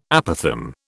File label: "apothegm", British